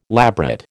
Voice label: "labret", American

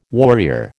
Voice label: "warrior", American

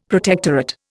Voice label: "protectorate", Indian; Dictionary

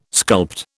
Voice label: "sculpt", British

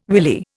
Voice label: "willy", Indian

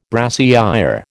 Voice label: "brassiÊre", American

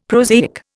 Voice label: "prosaic", Indian; Dictionary

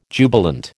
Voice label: "jubilant", American

